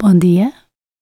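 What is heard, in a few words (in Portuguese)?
"bom dia", Portuguese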